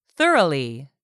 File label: "thoroughly", english; 3000 words